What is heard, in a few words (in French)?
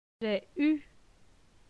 "jai eu", francais; phonetique; les voyelles